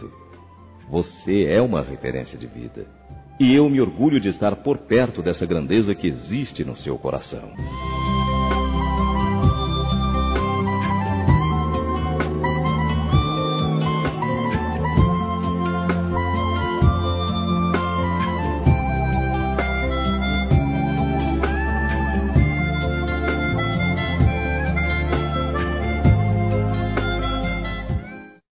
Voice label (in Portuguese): Para Quem Amputou parte do corpo M; Telemensagens; Otimismo; masc